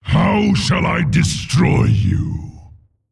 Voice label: Thinking

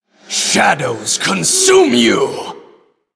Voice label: Male Human Attack

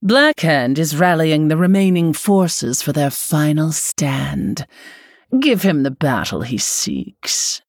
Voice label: Female Human; Lady Prestor

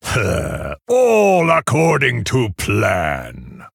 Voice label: Male Orc MIRROR GREETINGS

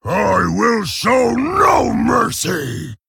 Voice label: Male Orc

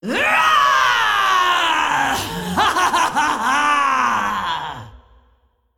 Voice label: Female Dragon Undead Player Loss

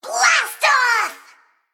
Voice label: Female Mech Attack

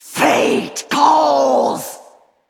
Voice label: Female Val'kyr Heroic Strike

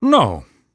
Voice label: Male Human error generic

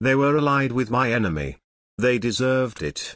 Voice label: Male Night Elf; Kurtrus; Scabbs

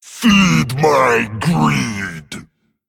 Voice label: Male Dragon Attack

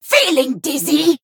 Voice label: Female Troll Attack

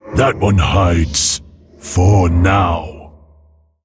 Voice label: Male Mogu

